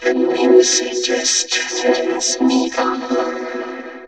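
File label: ROBVOX, robot voice; X-Static Goldmine 1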